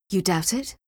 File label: Female; Skyrim Special Edition; Dawnguard Beleval